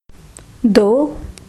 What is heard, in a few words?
Two, hindi